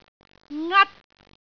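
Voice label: "ngat1", Cantonese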